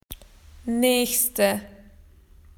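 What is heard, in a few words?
"next", Australian English; PictureDictionary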